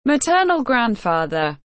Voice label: "Maternal grandfather", English